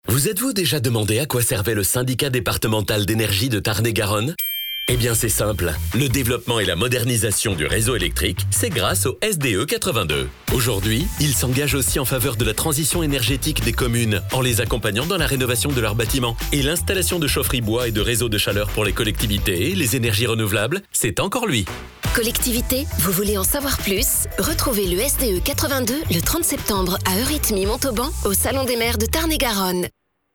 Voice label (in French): spot radio com institutionnelle